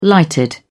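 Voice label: "lighted", English, British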